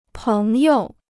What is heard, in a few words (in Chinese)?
"朋友", Chinese